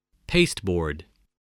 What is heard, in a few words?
"pasteboard", English, voice